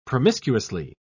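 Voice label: "promiscuously", British English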